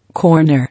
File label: "Corner", English